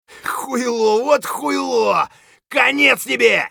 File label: scav battlecry; eft